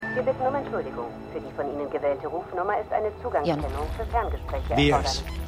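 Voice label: female telephone voice; Captain Marvel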